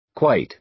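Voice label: "quite", American English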